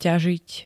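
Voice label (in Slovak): "ťažiť", Slovak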